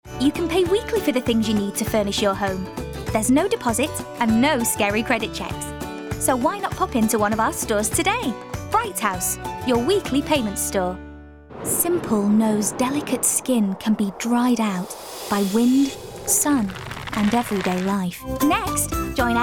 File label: commercial